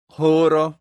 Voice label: "horo", esperanto; leciono1